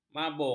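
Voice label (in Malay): "mambung", Malay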